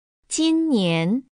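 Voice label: "jinnian", Chinese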